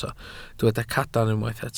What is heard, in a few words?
"cadarn", Welsh